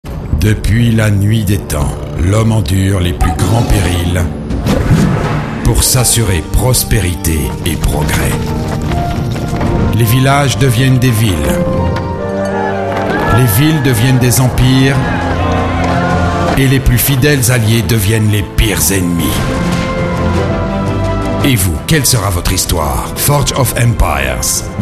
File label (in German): Werbung; Forge of Empires